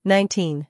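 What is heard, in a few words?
"nineteen", English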